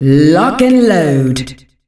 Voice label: Lock and Load, Announcer Voice, female; Unreal Tournament; ut2004

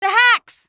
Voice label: the hacks, female; Half-Life 2

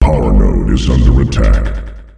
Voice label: PowerNode is under attack, announcer male; Unreal Tournament; ut2004

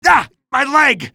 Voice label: my leg, male; Half-Life 2

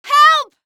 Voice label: help, female; Half-Life 2

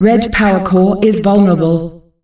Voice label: "Red PowerCore vulnerable", announcer female; Unreal Tournament; ut2004

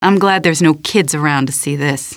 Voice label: question, female; Half-Life 2

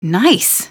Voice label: nice, female; Half-Life 2

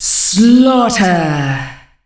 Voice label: SKAARJ slaughter, Announcer Voice, female; Unreal Tournament; ut2004

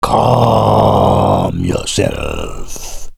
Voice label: calm, vortigaunt

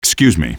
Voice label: excuse me, male; Half-Life 2

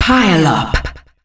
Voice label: Pile up, Announcer Voice, female; Unreal Tournament; ut2004

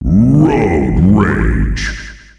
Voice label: "Road Rage", announcer male; Unreal Tournament; ut2004

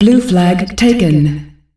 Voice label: "Blue Flag Taken", announcer female; Unreal Tournament; ut2004

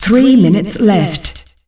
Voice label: "3 minutes remain", announcer female; Unreal Tournament; ut2004

